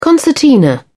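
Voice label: "concertina", British English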